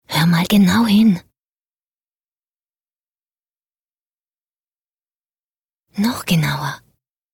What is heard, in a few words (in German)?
Sanft; Fremdtake